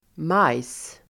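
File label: "majs", Swedish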